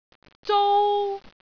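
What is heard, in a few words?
"zou1", Cantonese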